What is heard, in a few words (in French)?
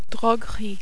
"droguerie", French